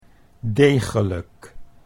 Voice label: "degelijk", Dutch